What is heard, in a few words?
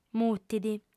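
"mutit" Sardinian, female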